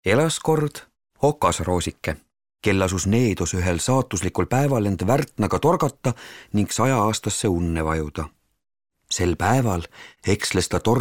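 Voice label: estonian male narration; voice demos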